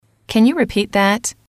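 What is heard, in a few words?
"can you repeat that", female, English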